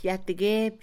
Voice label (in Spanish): perder, Mixe, Mujer; Posesión; INALI